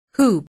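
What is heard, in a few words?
"whoop", English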